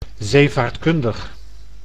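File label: "zeevaartkundig", Dutch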